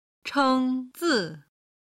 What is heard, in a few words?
"cheng zi", Chinese